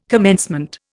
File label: "commencement", Indian